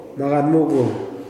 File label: "mountain", kuman